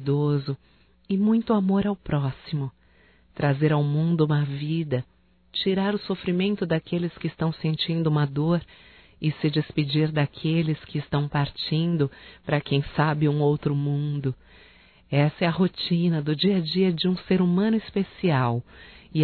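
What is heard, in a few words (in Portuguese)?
HOMENAGEM A MéDICA (Feminino), Telemensagens; Homenagens; Telemensagens 2017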